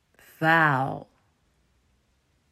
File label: "Vaal", Dutch